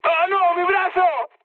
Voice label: damaged pilot, mood med, speech; War Thunder

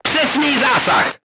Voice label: voice message direct hit, crew tanks czech

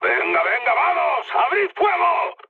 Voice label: attack position, mood high, speech; War Thunder

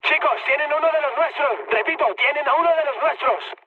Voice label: aircraft destroyed mood high; War Thunder

